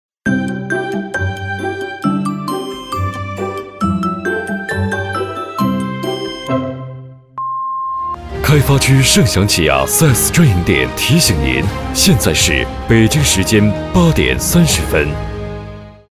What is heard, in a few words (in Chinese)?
男 广告 报时 报时